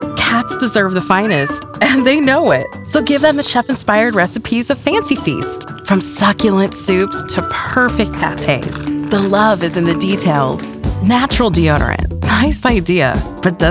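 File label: Commercial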